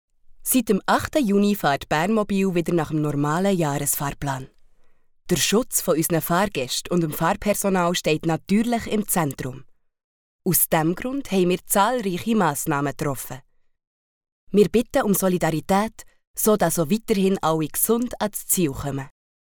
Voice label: Schweizerdeutsch; LOFT VOICES

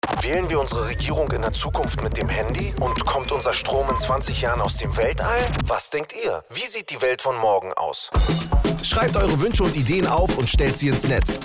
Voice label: sprecher spearmint spot; wrigley

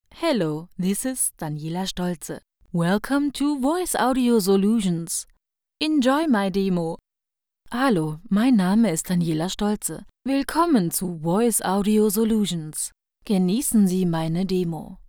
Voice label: ansage

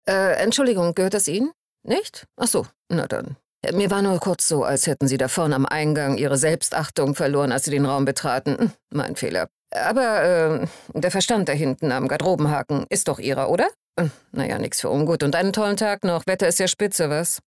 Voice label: Arrogant

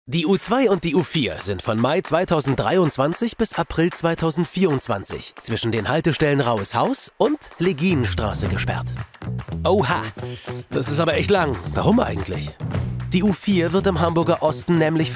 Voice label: Imagefilm; U2 U4 Sperrung in Hamburg

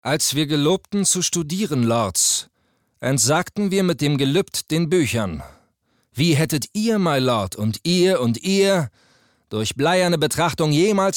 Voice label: synchron

